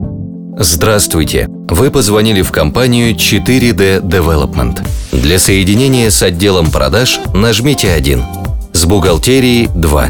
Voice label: IVR voice